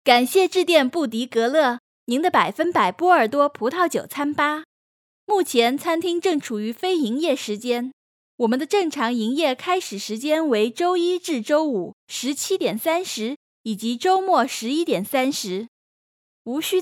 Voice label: Chinese - Mandarin

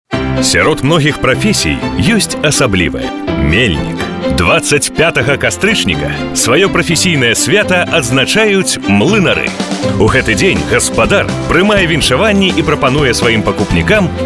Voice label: Belarusian male

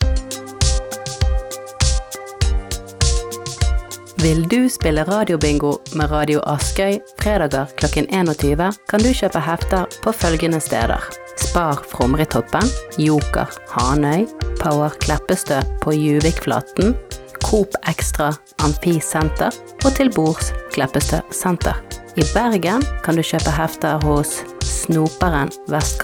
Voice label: Norwegian

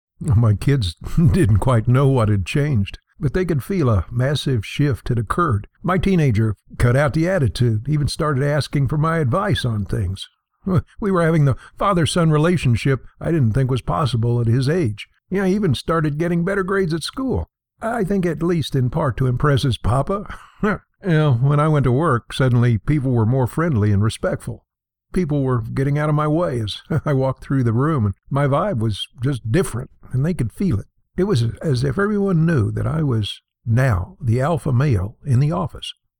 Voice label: ENG-USA

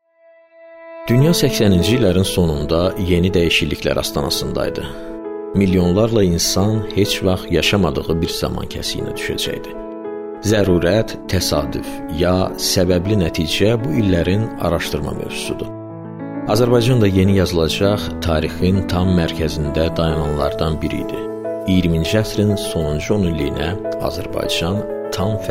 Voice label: Aze, golosa treki

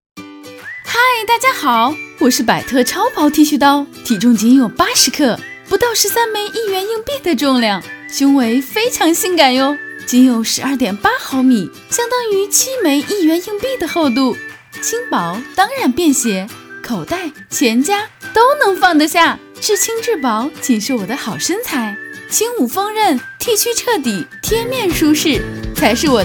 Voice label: Chinese - Mandarin

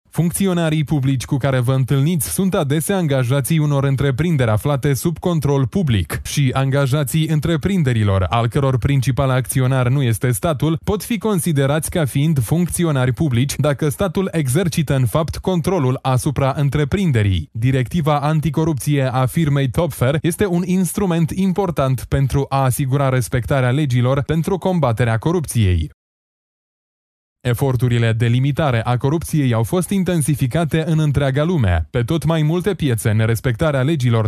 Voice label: Moldavian male

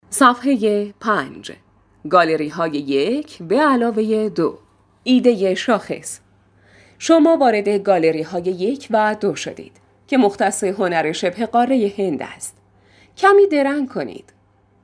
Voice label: Persian Farsi female

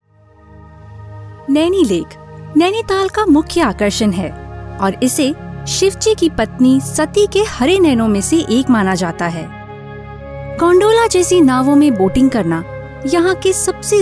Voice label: Hindi female

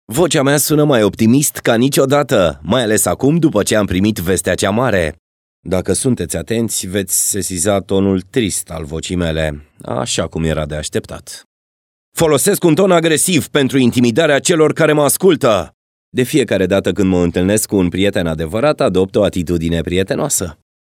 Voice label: Romanian male

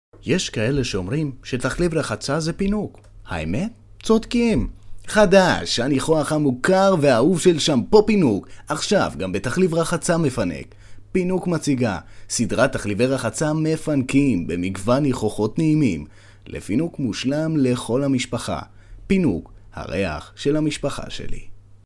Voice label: Hebrew

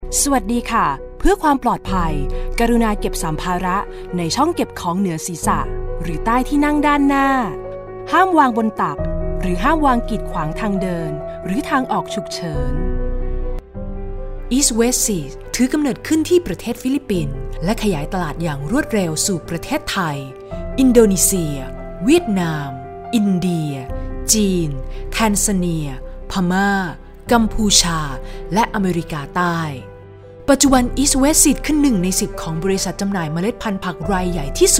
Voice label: Thai, golosa treki

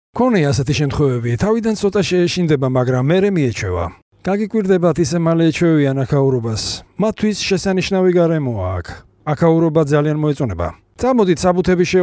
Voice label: Georgian male

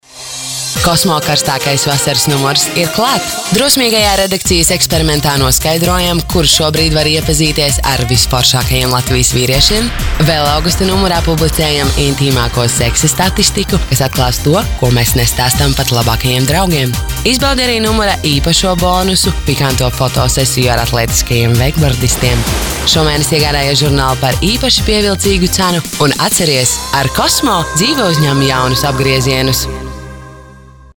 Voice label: Latvian female

